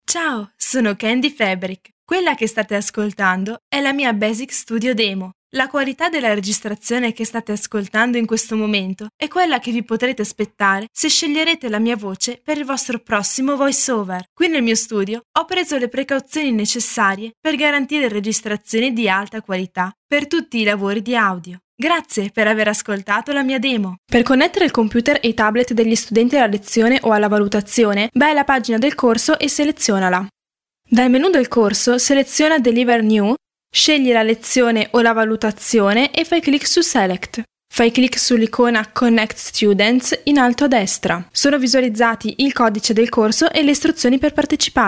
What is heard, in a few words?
female, Italian